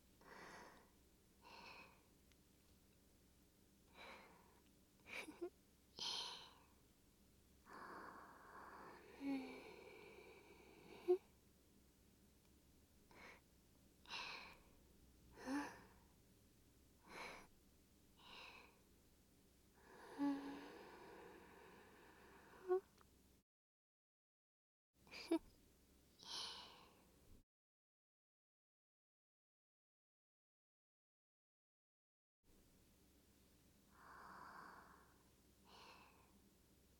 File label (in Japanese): 声のみ ノイズ除去なし; すーぱーあまえたいむ